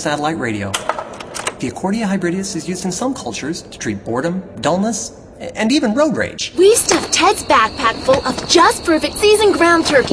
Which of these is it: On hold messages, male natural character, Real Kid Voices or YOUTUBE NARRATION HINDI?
male natural character